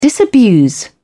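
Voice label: "disabuse", British English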